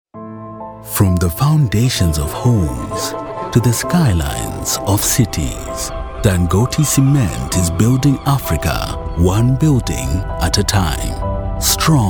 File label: English South African, Male; voice-clips-database